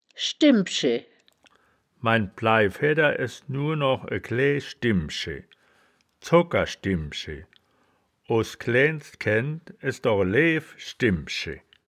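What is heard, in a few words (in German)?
"schdimb-schi", Wittlicher Wörterbuch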